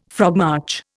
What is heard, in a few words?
"frogmarch", Indian